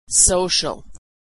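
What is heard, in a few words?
"social", American English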